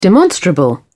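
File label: "demonstrable", British English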